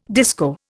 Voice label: "disco", Indian